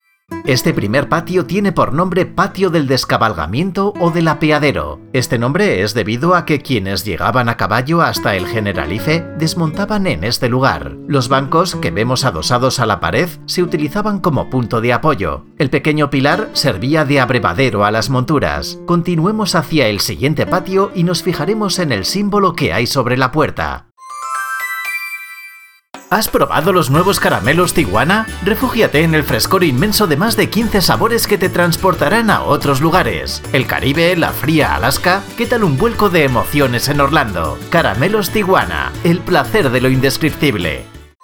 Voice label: spain